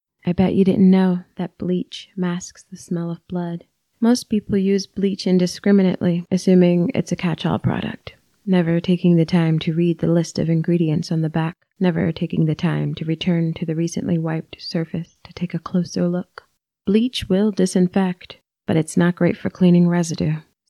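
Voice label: Audiobook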